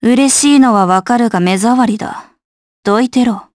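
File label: voice Victory, voices heroes, Japanese; Valance; kingsraid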